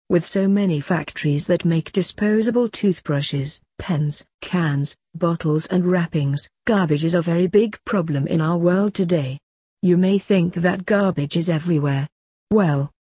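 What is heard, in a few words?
British; ocean of garbage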